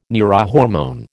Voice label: "neurohormone", American